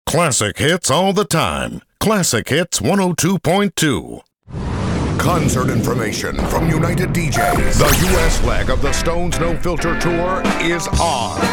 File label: english international radio imaging; actor experimentado de voz y cámara